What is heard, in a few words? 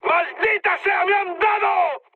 aircraft destroyed mood high; War Thunder